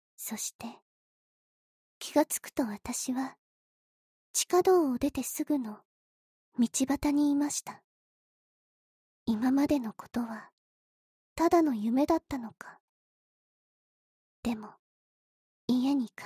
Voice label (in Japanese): 催眠解除, ASMR